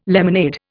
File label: "lemonade", Indian